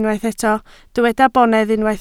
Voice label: "bonedd", Welsh